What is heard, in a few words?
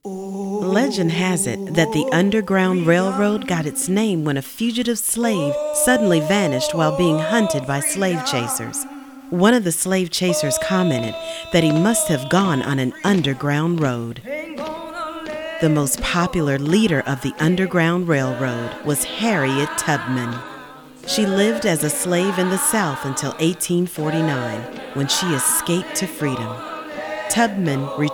Narration Engaging Enlightening Informing